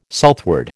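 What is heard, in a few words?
"southward", American English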